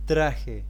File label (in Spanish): costume, spanish